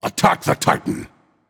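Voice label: attack, male; smite; anhur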